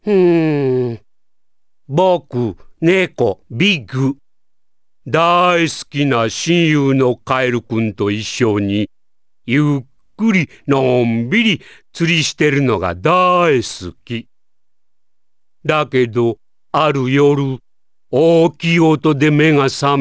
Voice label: JP Ad Voice; Sonic Adventure